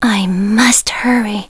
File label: "I must hurry..." High Female Voice; Knights